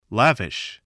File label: "lavish", English